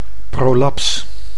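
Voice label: "prolaps", Dutch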